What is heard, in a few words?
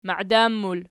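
"maidan" plural, Archi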